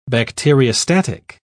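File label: "bacteriostatic", British English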